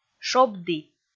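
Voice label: "шобді", Komi, female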